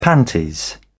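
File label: "panties", British English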